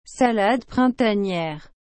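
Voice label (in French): "salade printanière", French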